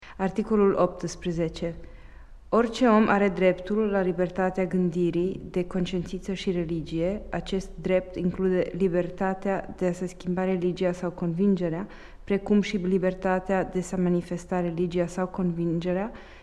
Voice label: Romani